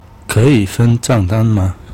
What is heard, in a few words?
can we split the bill Mandarin